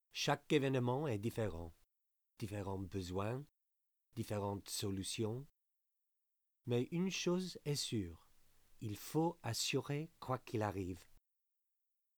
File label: French V O